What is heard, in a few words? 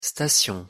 pronunciation fr station